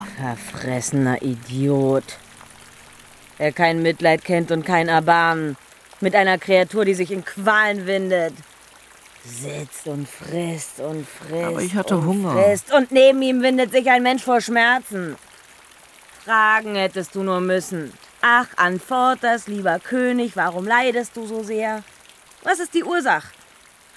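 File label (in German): Hörspiel; HR; Parzival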